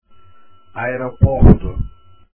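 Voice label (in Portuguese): "aeroporto", Portuguese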